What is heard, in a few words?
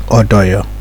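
"odoyo", Nigerian Pidgin, male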